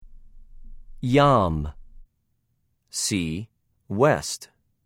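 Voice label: "יָם", Hebrew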